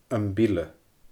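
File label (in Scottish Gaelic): "Am Bile", Scottish Gaelic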